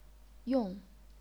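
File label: "yong", chinese pinyin, fourth tone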